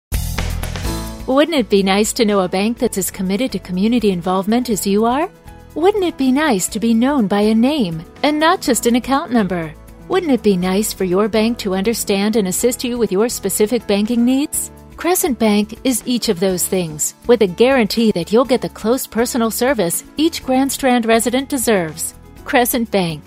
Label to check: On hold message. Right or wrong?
Right